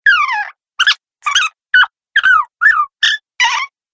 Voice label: Cartoon Chipmunk Sounds, Cartoon Voice Sound Effects